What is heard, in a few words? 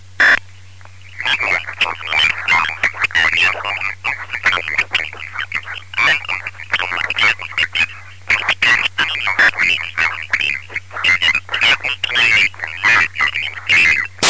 Midian TVS-2 Voice Scrambling DBL; Midian TVS-2 Voice Scrambling (high security double-hop)